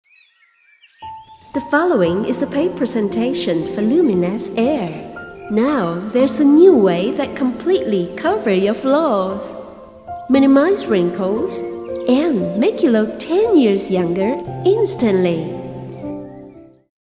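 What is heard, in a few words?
VO English; Luminess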